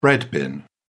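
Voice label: "bread bin", British English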